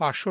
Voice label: Male